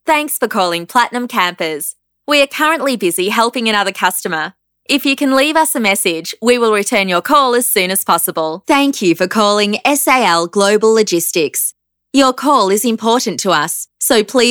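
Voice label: Australian PHONE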